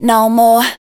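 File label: vocal female, Dance Vocals, spoken words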